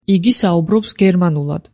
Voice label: he speaks German, georgian